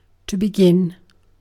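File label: "begin", British English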